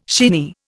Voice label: "shinny", Indian